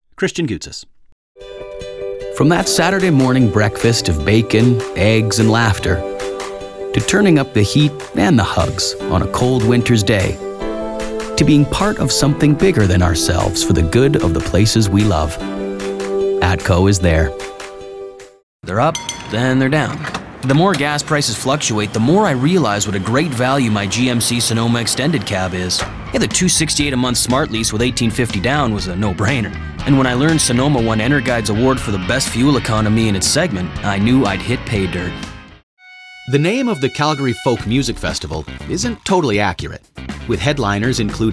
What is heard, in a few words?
Commercial